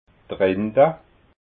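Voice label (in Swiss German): "rinda", Alsatian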